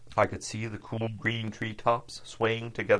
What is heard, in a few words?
LPC Burg Resynthesis; VowelBender; Parallel Audiobook Corpus Treasure